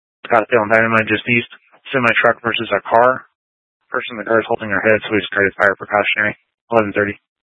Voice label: Scottsdale Police, Simulcast

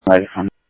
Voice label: Phoenix PD, scanner audio; ThompsonPeakHigh; PHOENIX PD-200 A

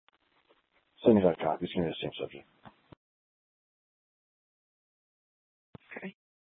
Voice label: Superstition Dispatch, Mesa PD

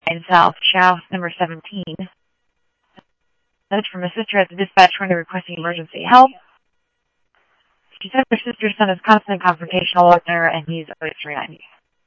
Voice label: Simulcast Police Dep, scanner audio trunk; Mesa Simulcast; MESA PD 3 Red Mt; MesaPoliceDep

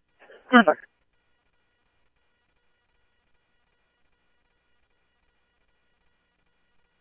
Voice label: Police, scanner

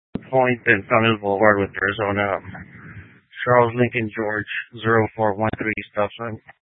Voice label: Sheriffs Office, scanner

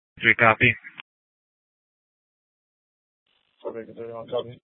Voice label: Mesa PD Central Dispatch, scanner; TOPAZ Regional Wireless Cooperative (TRWC) - Mesa Simulcast

